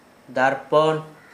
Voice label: "ɖarpon", Gta, Dialect: Hill; mirror

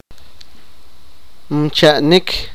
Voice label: sipakapense; lie

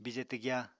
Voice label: "bije twgya", Apatani

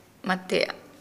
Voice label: "recognize", Nukuoro

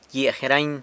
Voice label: "gyia jerany", San Lucas Quiavini; geranium

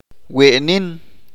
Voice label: "demasiado", Chalchiteko

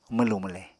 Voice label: "mwlù-mwle", Apatani

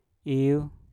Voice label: "iuw", Mpur; burung

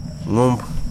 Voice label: "nose", wahgi; lae unitech

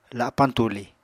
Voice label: "lapañ tuli", Apatani

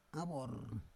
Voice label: "avor", Roon

